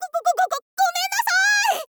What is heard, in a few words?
"gogogogomennasai", girl